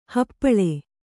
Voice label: "ಹಪ್ಪಳ", Kannada

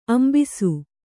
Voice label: "ಅಂಭಸ", Kannada

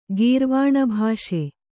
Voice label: "ಗೀರ್ವಾಣಭಾಷೆ", Kannada

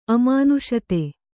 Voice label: "ಅಮಾನುಷತೆ", Kannada

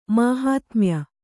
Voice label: "ಮಾಹಾತ್ಮ್ಯ", Kannada

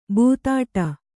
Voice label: "ಬೆದಡೆ", Kannada

